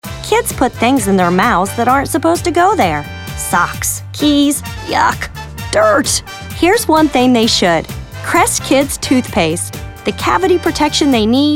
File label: spot, voiceover; crest